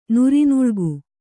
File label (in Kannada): "ನುರಿನುಳ್ಗು", Kannada